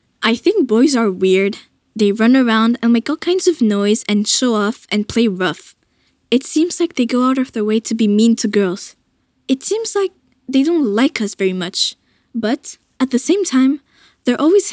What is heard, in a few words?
vocal Doublage ENG; EN 2024